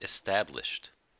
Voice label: "established", us